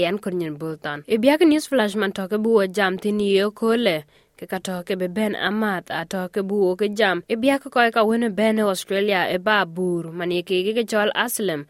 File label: dinka, podcast; SBS Dinka News Flash 19/02/2024; Ka col kek biak SBS Dinka News Flash ne yekolle ke pɛi ye nïïn 19 pɛië rou runë biänebuur rou ku thiɛ̈ɛ̈r rou ku ŋuan